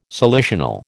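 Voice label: "salicional", American English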